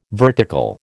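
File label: "vertical", American English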